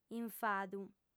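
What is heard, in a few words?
"infadu", Sardinian, female; Ideale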